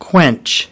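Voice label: "quench", English word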